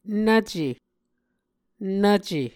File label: "nvce", Muscogee